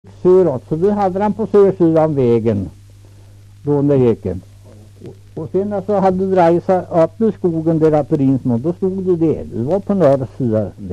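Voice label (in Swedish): Kräklinge Närke, dialekter; Folkminnen; Svenska sagor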